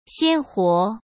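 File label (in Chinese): "鲜活", Mandarin Chinese; hsk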